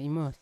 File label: "imos", Yawa; island; unat